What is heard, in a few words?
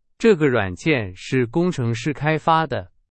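Chinese; hsk2; 是。。。的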